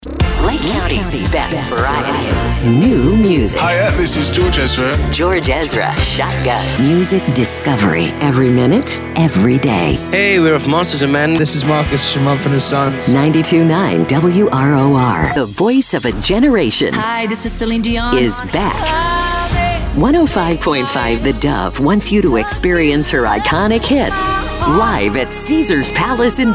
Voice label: radio women Soft AC; radio imaging